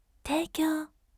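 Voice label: voice teikyou gentle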